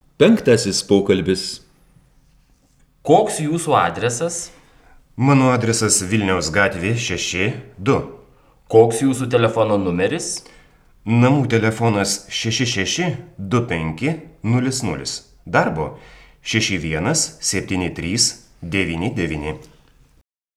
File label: Dialog